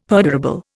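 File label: "perdurable", Indian English; Dictionary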